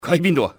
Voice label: where is he, cantonese male; XBOX; enemy

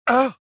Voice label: pushed, rebel; XBOX

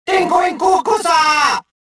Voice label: cover, rodian

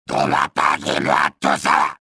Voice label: chase, trandoshan; XBOX

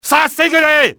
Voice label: take them out, cantonese male; XBOX; enemy

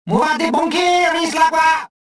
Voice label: suspicious, rodian

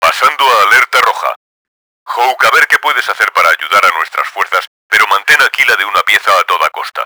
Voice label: red alert, Spanish; Battle Engine Aquila; XBOX

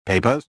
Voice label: suspicious; XBOX

